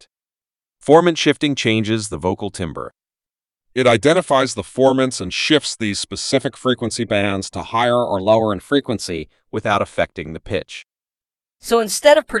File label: Voice Modeler Man